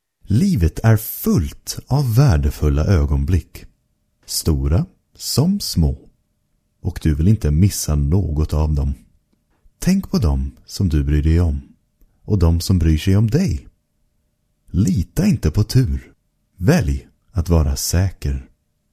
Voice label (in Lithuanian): (VYR) Svedu balsas; vyras